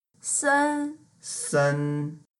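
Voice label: "sen1", Mandarin Chinese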